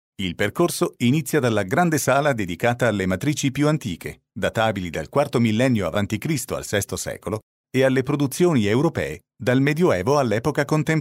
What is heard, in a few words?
audioguide